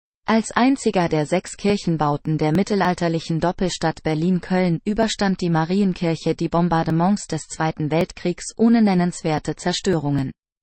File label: deutsch; Marienkirche